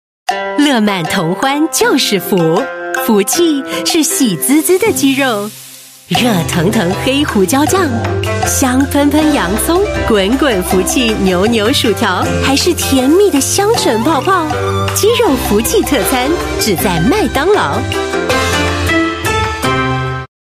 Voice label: Mandarin Commercial, Mandarin China Female; McDonalds Chicken Prosperity

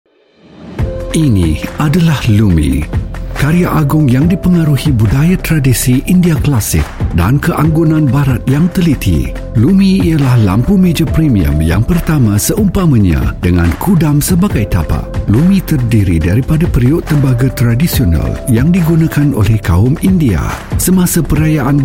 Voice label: Malay; Lumi

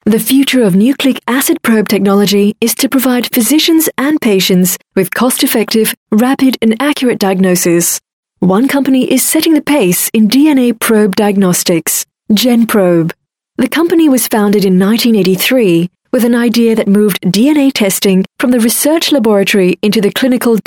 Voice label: Asian English Corporate Video F

